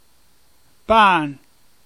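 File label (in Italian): "Paṅ" Emilian dialect word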